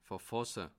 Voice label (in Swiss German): "verfasse", alsace